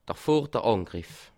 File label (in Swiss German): "vorder", alsace